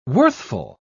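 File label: "worthful", British English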